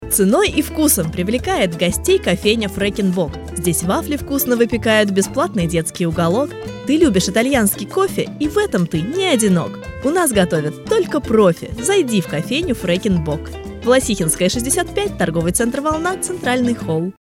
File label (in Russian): Стих с музыкой; Фрекен Бок